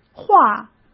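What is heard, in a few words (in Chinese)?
"划", Mandarin Chinese; hsk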